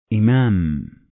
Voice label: "Imam", Arabic

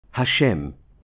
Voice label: "HaShem", Hebrew

